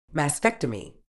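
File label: "mastectomy", British English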